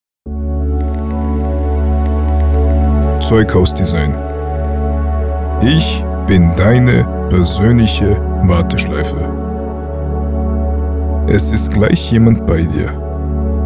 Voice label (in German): Text Vor Melden, telefonansagen; zeughaus